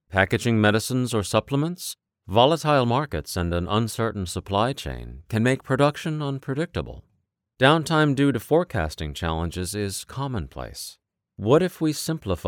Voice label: corp narr Pharmaceutical Printer; warm - authoritative